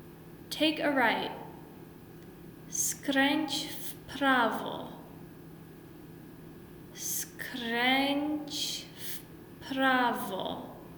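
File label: Take a right, Polish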